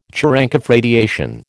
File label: "Cherenkov radiation", American